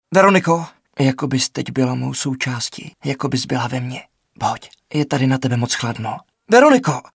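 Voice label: Male Czech drama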